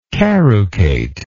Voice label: "carucated", English